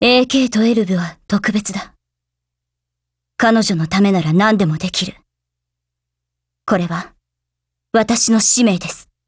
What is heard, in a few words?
DIALOGUE JP